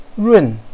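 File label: "run4", Chinese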